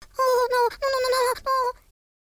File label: scared